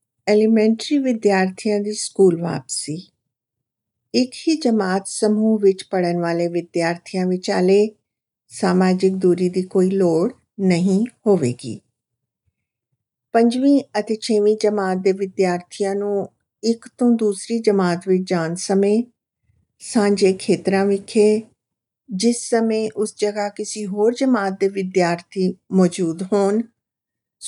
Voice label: Punjabi